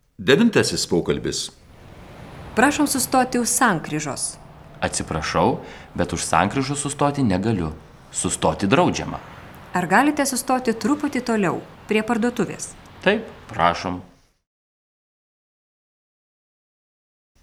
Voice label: Dialog